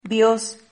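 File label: "bios", Spanish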